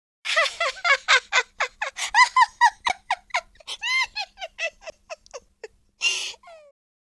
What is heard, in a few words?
happy, Southern Belle; speech